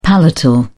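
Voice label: "palatal", British English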